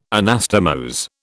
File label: "Anastomose", British